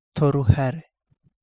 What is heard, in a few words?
Kapingamarangi; red breasted wrasse